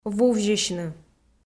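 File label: "вувзьысьны", Komi, female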